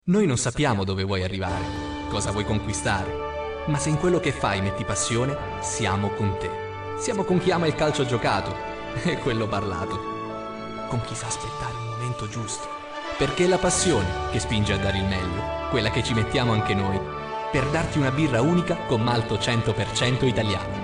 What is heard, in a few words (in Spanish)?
ITALIANO MASCULINO, video comercial